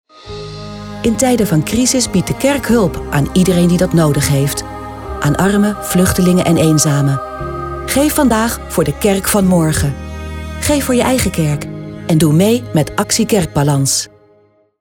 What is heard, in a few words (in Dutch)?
Commercial Actie Kerkbalans; NPO; STER